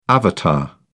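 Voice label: "avatar", English, British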